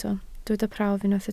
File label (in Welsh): "prawf" Welsh